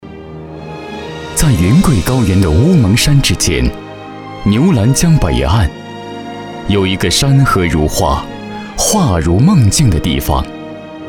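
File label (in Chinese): 政府宣传宣传片; 鲁甸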